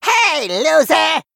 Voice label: Male Gnome Play